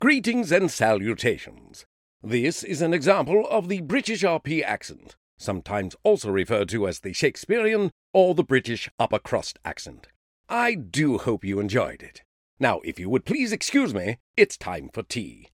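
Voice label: British, Accents & Dialects; Voice, Acting & Singing samples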